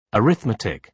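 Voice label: "arithmetic", British English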